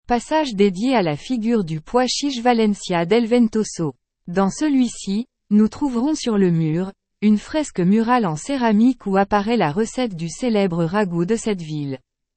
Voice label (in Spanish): AUDIO FRANCES; PASAJE DE LOS GARBANZOS